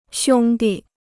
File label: "兄弟", Chinese